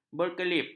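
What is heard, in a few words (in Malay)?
"berkelip", Malay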